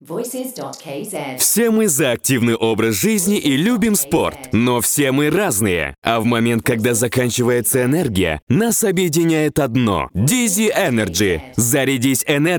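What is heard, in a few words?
Russian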